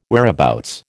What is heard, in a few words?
"whereabouts", American